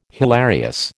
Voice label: "hilarious", American